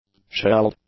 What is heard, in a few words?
"shelled", English; adjectives